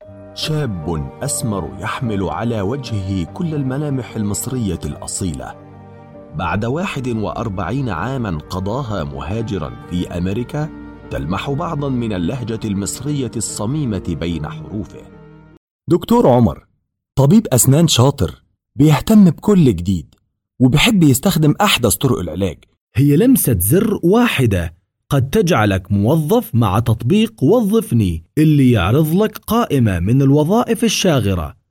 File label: Arabic